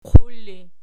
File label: "qur", Archi